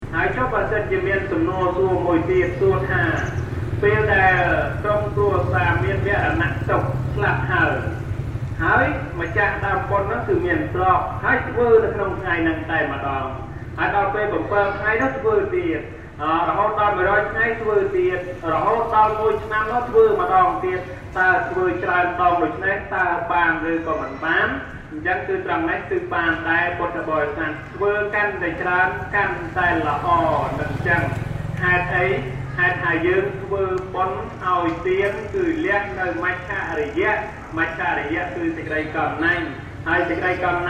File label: storefront lecture, cambodia